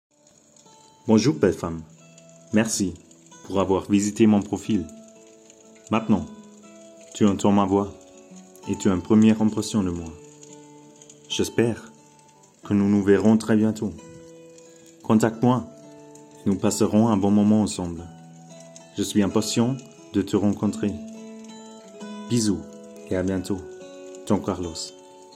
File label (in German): Stimmprobe französisch